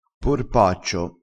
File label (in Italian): "porpaccio", vocabolario dialettale